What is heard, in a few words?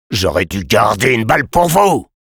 Sniper jeers, fr